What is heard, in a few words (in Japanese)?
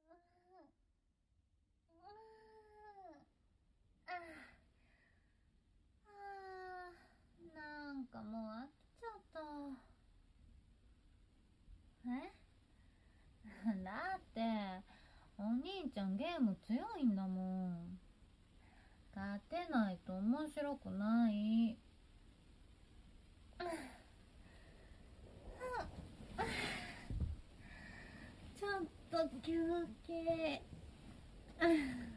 ささやき, ASMR; 夜桜字幕組; えるるっく; ささやき!りそうのかのじょ2